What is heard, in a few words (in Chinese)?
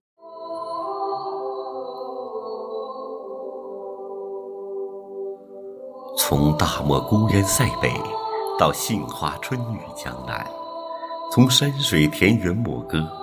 中国古诗词大气浑厚